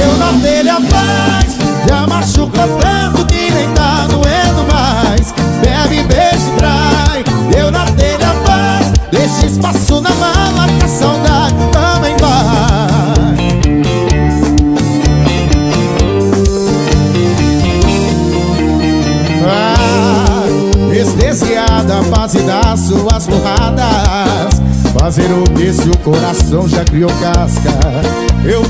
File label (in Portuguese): locutor a voz magnifica